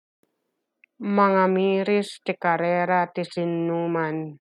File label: Ilocano